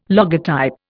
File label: "logotype", Indian